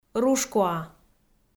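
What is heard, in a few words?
"рушкуа", Komi, female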